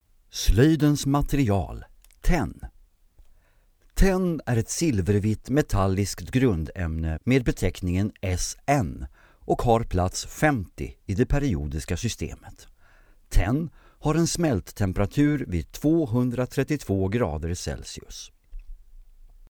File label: swedish e-learning